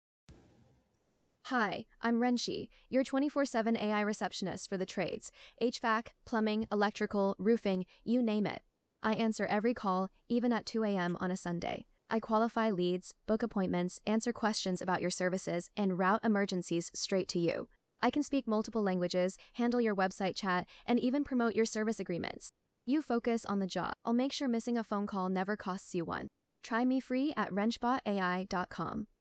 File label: female wrenchy